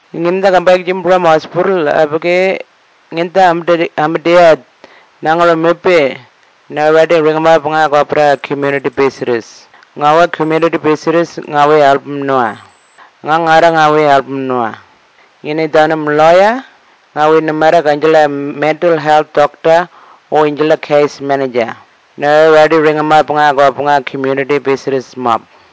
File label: Tiwi